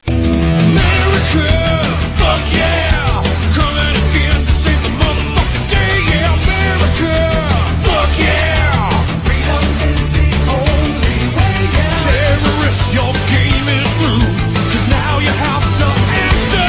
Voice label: radio; murica